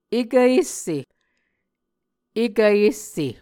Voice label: "ekisse", Muscogee